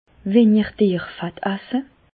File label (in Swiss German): dierfett, Bas Rhin; diminuer les graisses animales; medecine